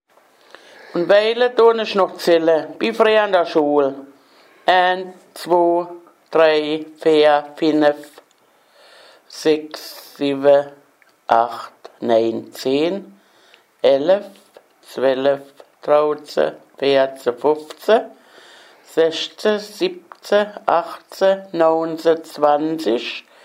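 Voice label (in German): zahlen, O-Ton Hunsrück; Mörsdorf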